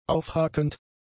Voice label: "aufhakend", German; adjectives

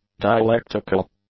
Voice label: "dialectical", english; adjectives

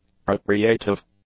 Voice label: "procreative", english; adjectives

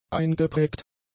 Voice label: "eingeprägt", German; adjectives

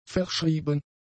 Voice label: "verschrieben", German; adjectives

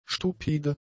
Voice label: "stupide", German; adjectives

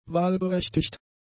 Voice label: "wahlberechtigt", German; adjectives

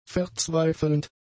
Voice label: "verzweifelnd", German; adjectives

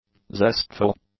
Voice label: "zestful", english; adjectives

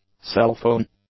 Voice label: "cellphone", English; nouns

